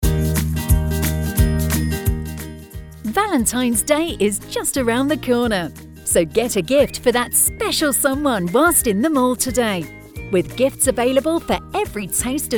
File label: Valentines Day, Female